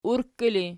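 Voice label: "urkki" ergative, Archi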